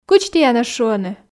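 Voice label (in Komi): кыдз тіянӧс шуӧны, Komi, female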